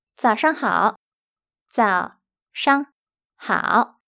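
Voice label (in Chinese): "早上好", Chinese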